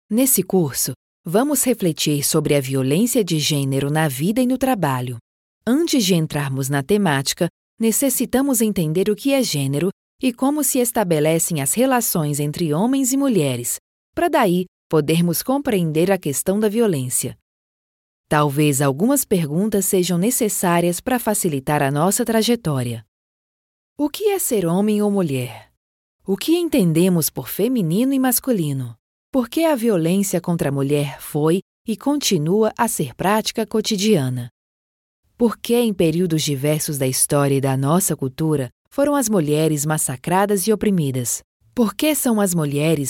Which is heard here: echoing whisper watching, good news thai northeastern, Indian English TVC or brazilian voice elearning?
brazilian voice elearning